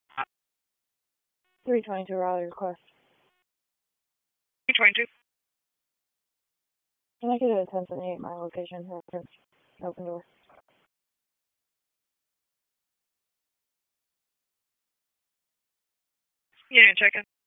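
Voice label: Police District Dispatch, p25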